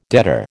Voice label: "debtor", American

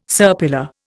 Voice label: "serpula", Indian

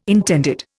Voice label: "intended", Indian

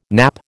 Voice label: "nappe", American

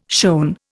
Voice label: "shown", Indian

